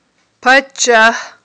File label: "паччагь", Aghul